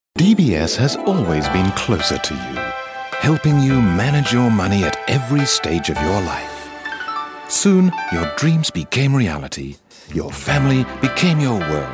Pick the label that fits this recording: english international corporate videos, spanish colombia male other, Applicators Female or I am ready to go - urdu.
english international corporate videos